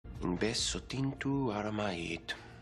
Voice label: Speaks Aramaic; Klaus

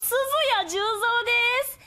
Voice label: Cute; Suzuya Juuzou

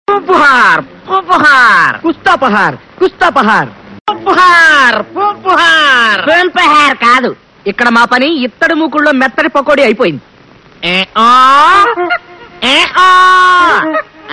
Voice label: Telugu Comedy

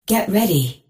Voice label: Female Robot

